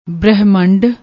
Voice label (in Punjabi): "brehmand", Punjabi